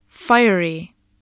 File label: "fiery", spelling